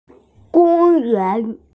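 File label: "gong yuan" (park), Chinese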